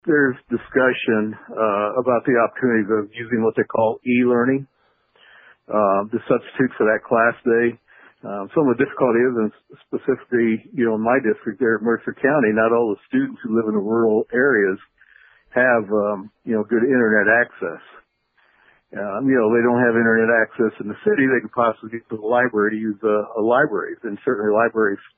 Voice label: elearning